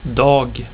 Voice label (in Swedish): "dag", swedish; tijden